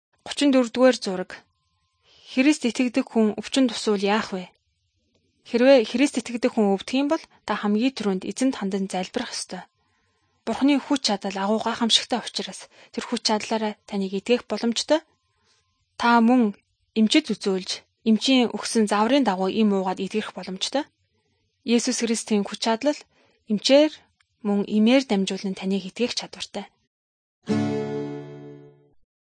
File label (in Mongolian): good news mongolian